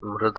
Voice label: "mrd", Sanskrit